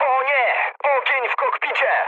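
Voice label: on fire, mood med, Polish speech; War Thunder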